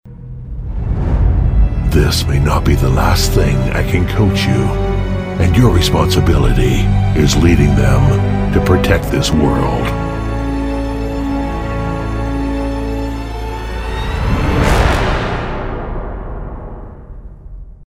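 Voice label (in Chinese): 外籍男英_外语_美式英语_游戏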